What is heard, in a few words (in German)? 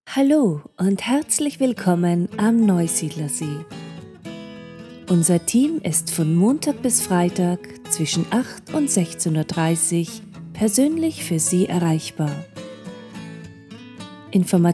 Speaking, Telefonansage; Telefonansage Neusiedler See Tourismus